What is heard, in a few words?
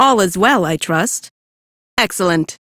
Crew Greet, Female; Warframe; Railjack; Cephalon Suda